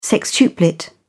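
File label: "sextuplet", British English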